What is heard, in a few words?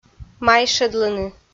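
"майшӧдлыны", Komi, female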